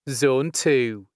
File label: "Zone 2", announcements male